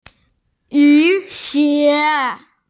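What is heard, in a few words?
"yu3xie2", Mandarin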